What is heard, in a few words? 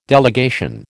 "delegation", American